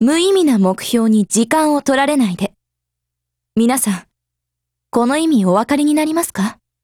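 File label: go attack, Japanese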